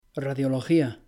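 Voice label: "radiología", Spanish; pronouncing c g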